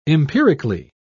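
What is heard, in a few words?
"empirically", British English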